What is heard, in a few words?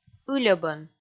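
"ыльӧбӧн", Komi, female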